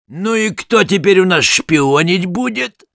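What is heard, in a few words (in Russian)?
Sniper special completed, Russian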